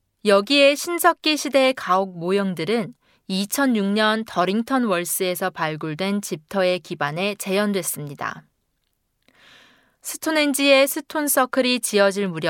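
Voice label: Korean, General; GoLocalise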